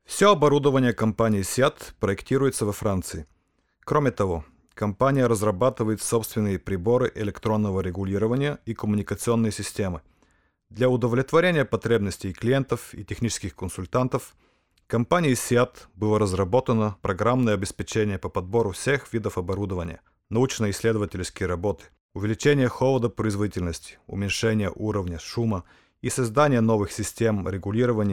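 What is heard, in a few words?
russian male narration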